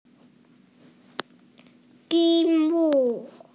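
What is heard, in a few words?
"ji1 mu4", Mandarin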